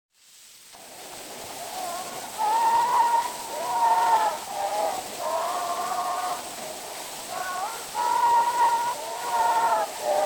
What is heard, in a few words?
Zulu Recordings; Father Franz Mayr